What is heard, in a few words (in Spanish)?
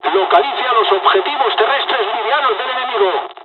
groundattack, dialogs spanish; midway; War Thunder